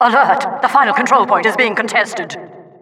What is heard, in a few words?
Announcer last flag